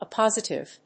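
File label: "oppositive", English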